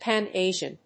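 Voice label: "Pan-Asian", English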